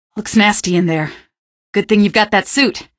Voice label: female; Garry's Mod; hl2; Streetwar; tunnel